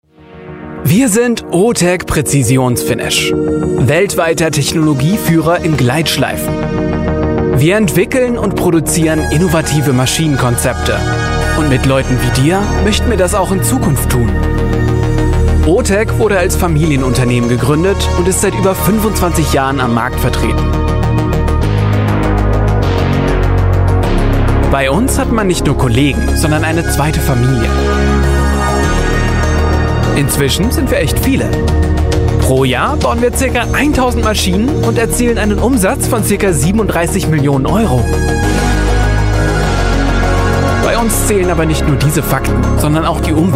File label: german voice over male narrator